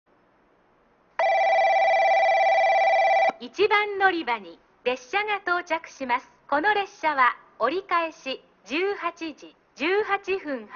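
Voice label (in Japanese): 1番のりば接近放送, 中津駅; 折り返し・普通 下関行き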